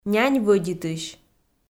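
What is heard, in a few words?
"нянь вӧдитысь" Komi-Zyrian, female; kpv audio dict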